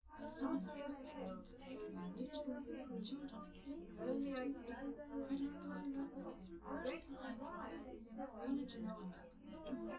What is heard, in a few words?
female